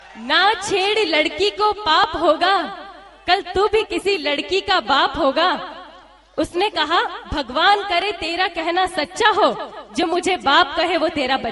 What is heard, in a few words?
Funny Shayri By Girl